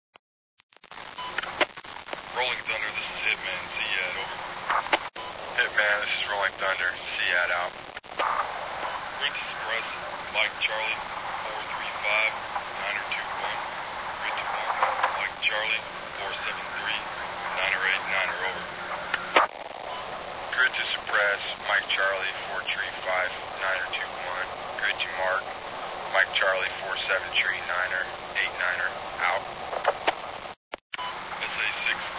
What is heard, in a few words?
radio chatter; sven; ambience